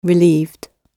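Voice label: "relieved", British English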